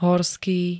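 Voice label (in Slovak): "horský", Slovak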